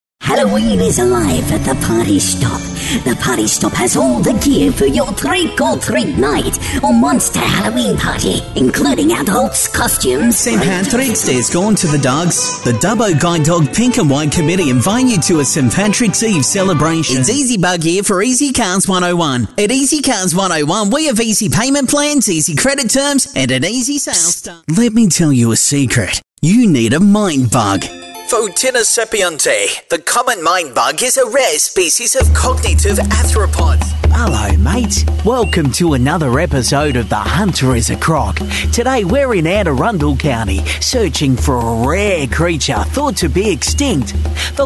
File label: Character